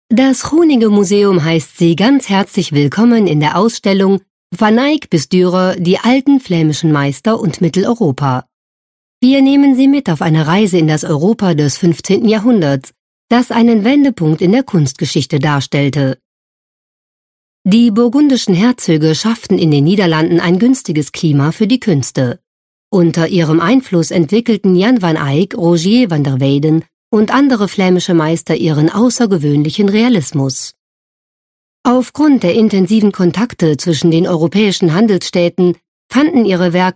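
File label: German, female, narration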